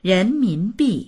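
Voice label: "ren2min2bi4", Chinese; 人民币; 人民元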